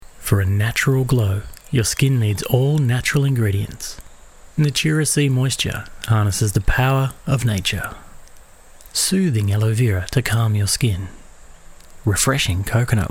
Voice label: Television Spots / Natural Skincare - Warm, Natural Male Voice